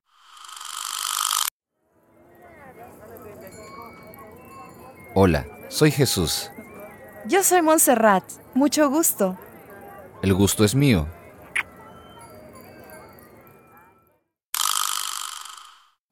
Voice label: dialog, spanish; spanishpod101

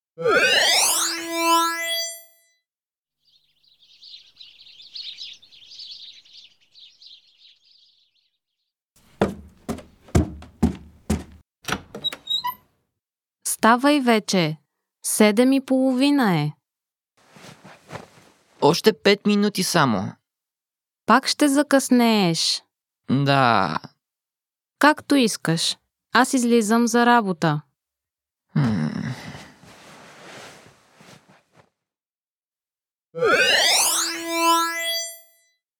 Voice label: dialog, bulgarian; bulgarianpod101

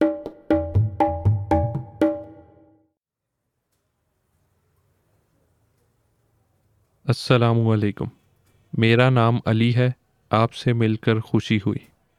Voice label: dialog, urdu; urdupod101

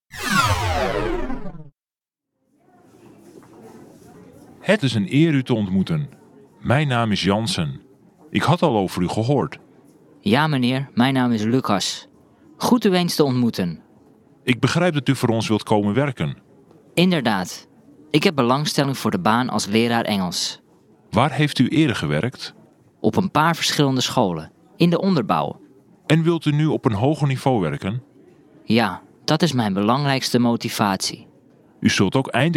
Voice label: dialog, dutch; dutchpod101

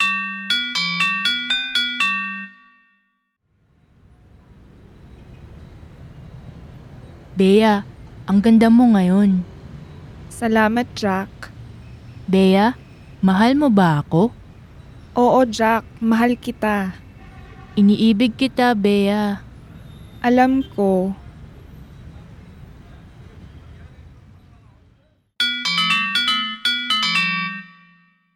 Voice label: dialog, filipino; filipinopod101

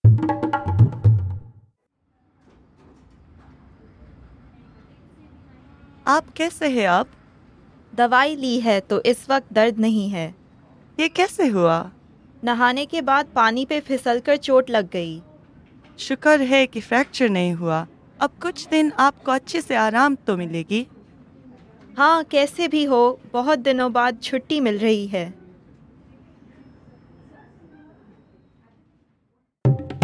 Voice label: dialog, hindi; hindipod101

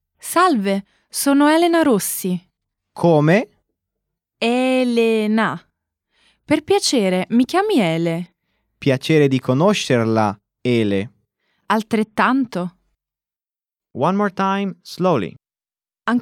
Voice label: dialog formal, italian; italianpod101

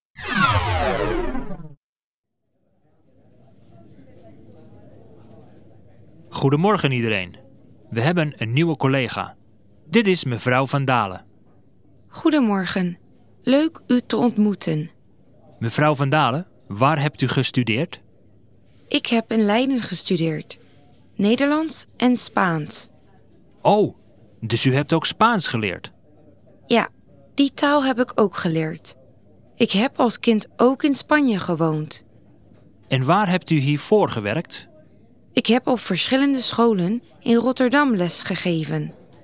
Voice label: dialog, dutch; dutchpod101

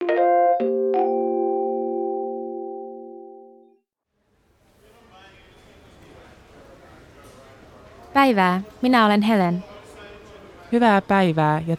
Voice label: dialog, finnish; finnishpod101

